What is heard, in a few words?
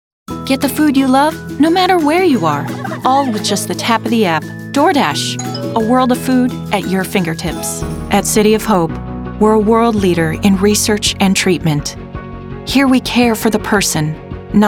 Commercial